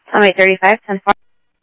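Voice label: Lakes Sheriff Lake Patrol, scanner audio trunk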